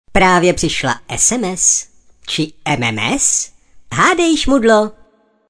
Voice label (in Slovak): sms hlásky cz